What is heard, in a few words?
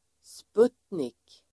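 "sputnik", Swedish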